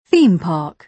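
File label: "theme park", British English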